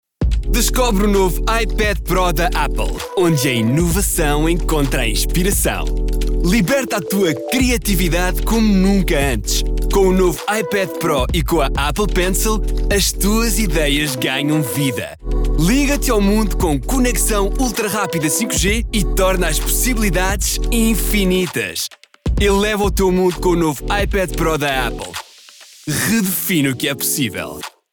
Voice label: Spot